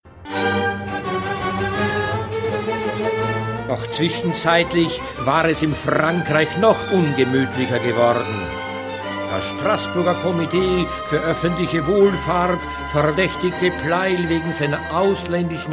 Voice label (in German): Hörbuch; pleyel